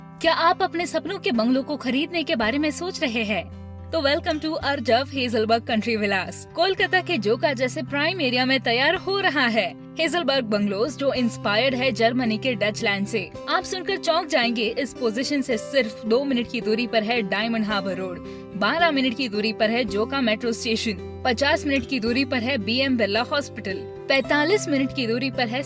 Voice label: real estate ad VO

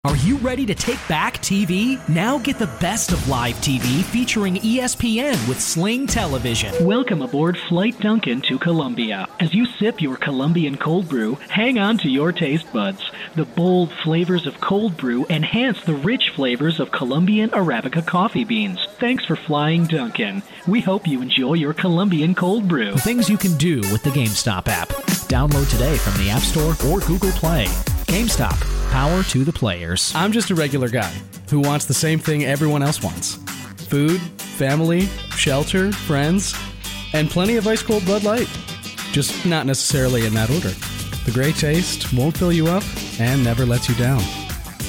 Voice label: Commercial Demo

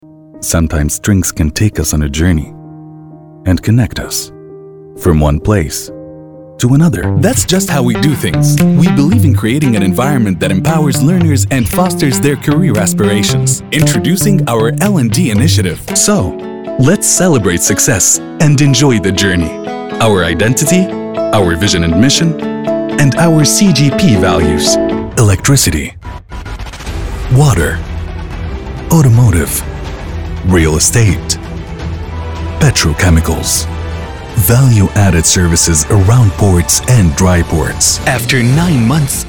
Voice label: English Narration

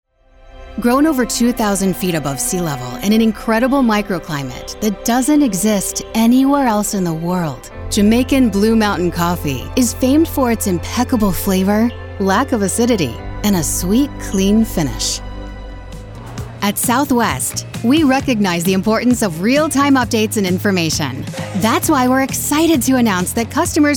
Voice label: Voice Narration

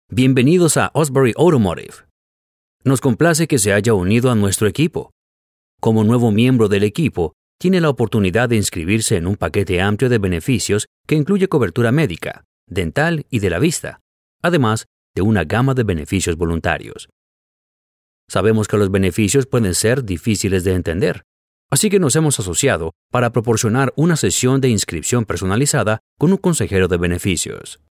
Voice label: Spanish Narration Sample

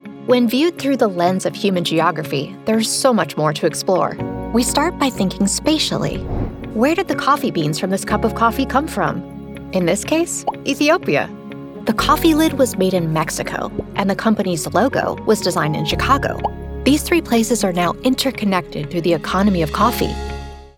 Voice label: Human geography eLearning

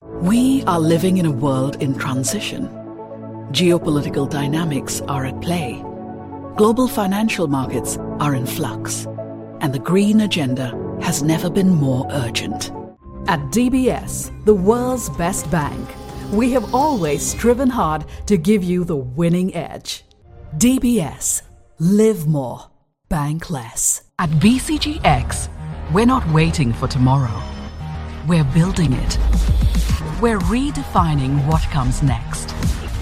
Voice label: Corporate VO - Finance & Investment

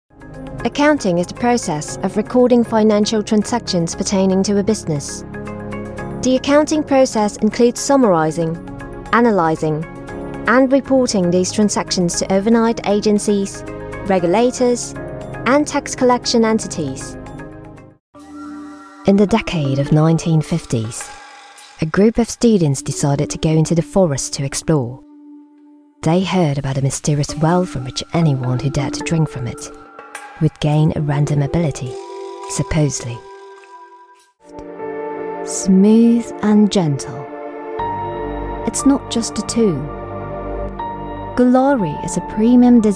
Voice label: Voice Reel - British Accent

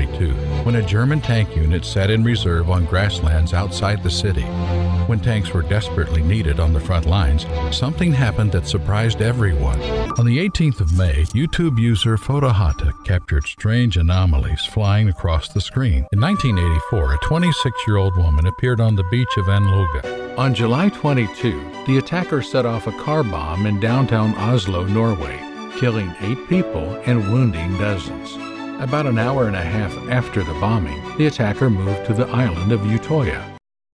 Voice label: Documentary History

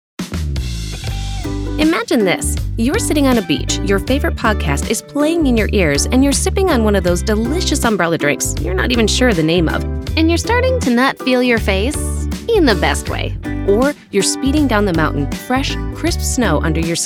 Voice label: CORPORATE NARRATION

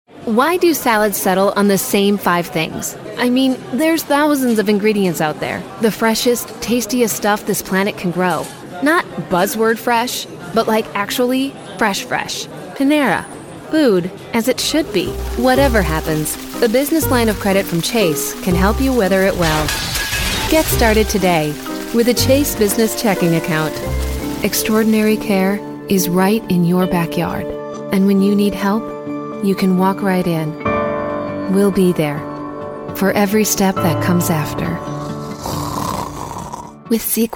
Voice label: Commerical Demo

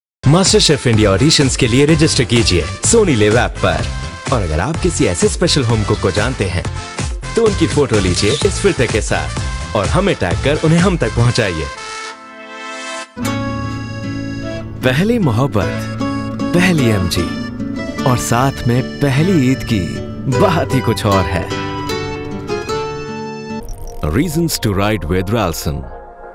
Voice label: Voice Reel Hindi and Indian English VO

